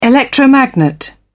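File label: "electromagnet", British English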